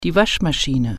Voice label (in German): "washer", German